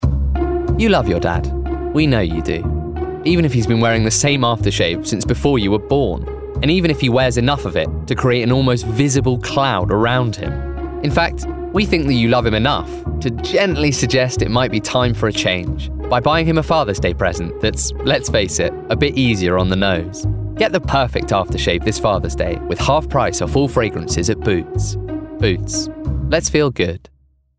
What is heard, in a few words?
COMMERCIAL; Boots Fathers Day